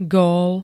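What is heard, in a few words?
"gól", Slovak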